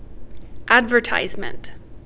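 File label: "advertisement", Canadian English, female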